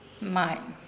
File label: "pain", Nukuoro